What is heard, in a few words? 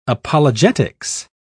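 "apologetics", British English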